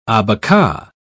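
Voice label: "abaca", British English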